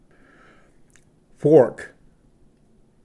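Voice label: "fork", English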